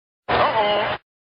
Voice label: uh oh

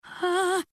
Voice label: nervous uhh

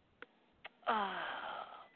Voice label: uhhhhhhhh

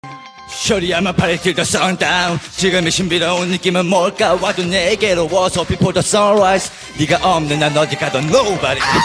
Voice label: mr funny voice

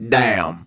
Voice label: vocal damn

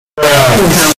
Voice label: "bruh" earrape

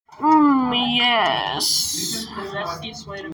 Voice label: hmmm yess

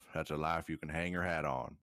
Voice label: southern

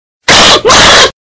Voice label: noot loud

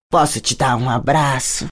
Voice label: voice portugues brasileiro brazilian portuguese; amumu; league of legends

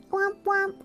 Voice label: cute womp womp; shylily

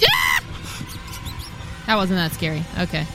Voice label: scary wow

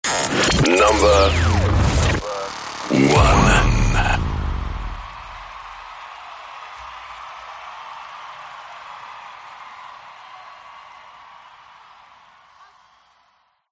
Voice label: countdown number 1

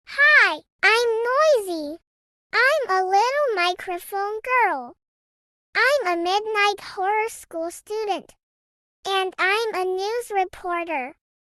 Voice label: noisy voice test

